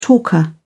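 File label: "talker", British English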